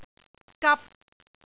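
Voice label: "gap3", Cantonese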